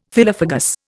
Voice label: "phyllophagous", Indian; Dictionary